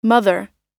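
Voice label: "mother", US English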